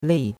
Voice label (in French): "lei4", chinois; Cours-de-Chinois